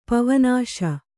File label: "ಪವನಾಶಿ", Kannada